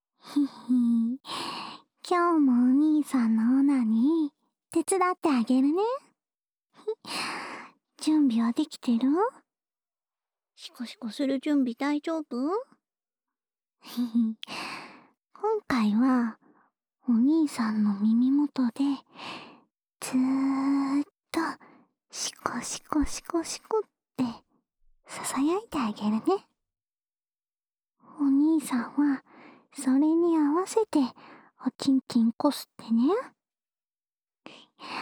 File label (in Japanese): オナサポ用シコシコボイスループ（左耳）, ASMR